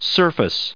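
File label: "surface", US English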